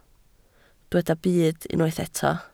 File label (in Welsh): "byd", Welsh